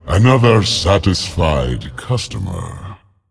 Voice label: Male Demon Play

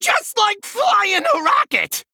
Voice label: Male Goblin Play

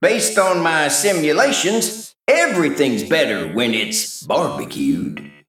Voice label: Male Mech Flavor

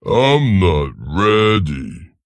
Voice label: Male Ogre

